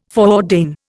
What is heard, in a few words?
"foreordain", Indian